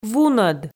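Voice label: "вунӧд", Komi, female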